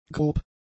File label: "grob", German; adjectives